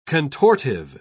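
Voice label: "contortive", British English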